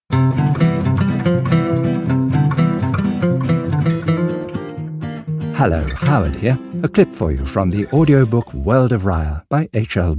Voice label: Audiobooks; Voice-over demos

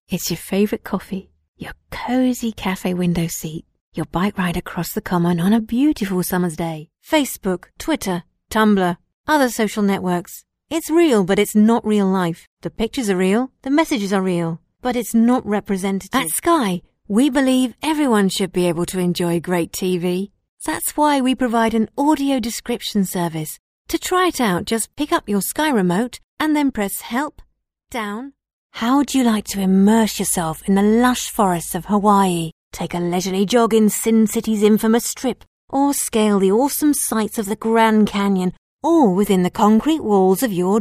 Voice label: Corporate, UK

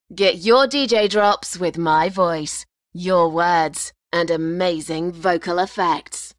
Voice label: Chorus; Voice-over demos; 2013 drops

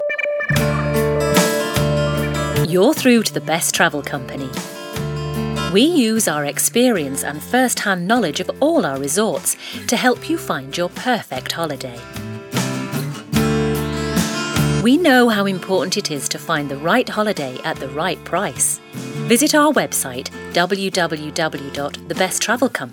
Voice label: On Hold; Voice-over demos; on hold voices

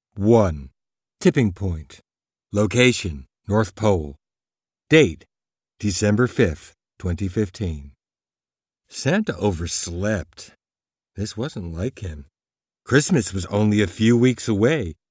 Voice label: audio books, American; USA

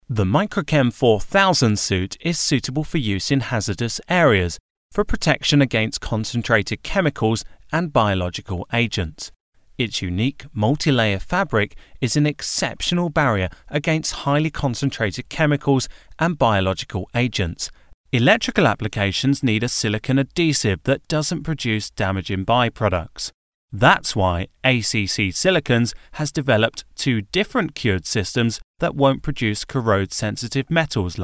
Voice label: Corporate, UK; Voice-over demos

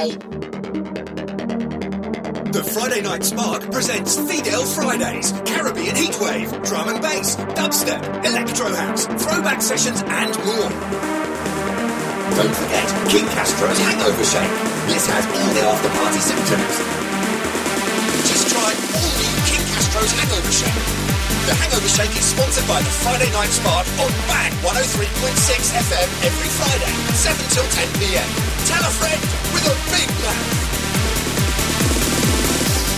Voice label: DJ Drop; Voice-over demos

